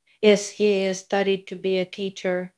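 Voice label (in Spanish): Voice Acting es F, Spanish, female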